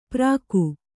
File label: "ಪ್ರಾಕು", Kannada